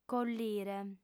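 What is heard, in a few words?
"collire", female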